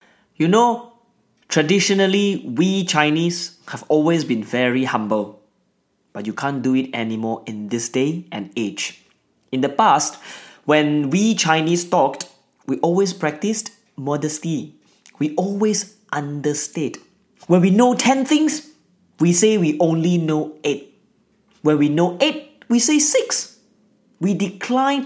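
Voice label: Malay, Accented English; GoLocalise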